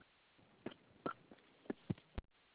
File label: voice message; nightclubs